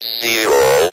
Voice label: zero robot; Voice; numbers and letters